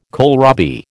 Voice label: "kohlrabi", American

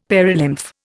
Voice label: "perilymph", Indian; Dictionary

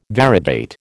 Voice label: "variegate", American

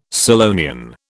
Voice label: "Scillonian", British

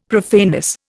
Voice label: "profaneness", Indian; Dictionary

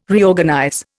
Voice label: "Reorganize", Indian

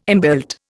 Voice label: "inbuilt", Indian

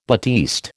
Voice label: "batiste", American

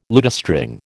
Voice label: "lutestring", American

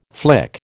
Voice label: "flick", American

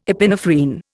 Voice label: "epinephrine", Indian

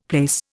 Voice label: "plaice", Indian; Dictionary

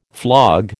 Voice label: "flog", American

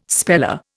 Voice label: "speller", Indian

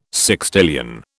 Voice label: "sextillion", British

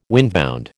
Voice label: "windbound", American

